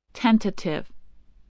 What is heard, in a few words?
"tentative", spelling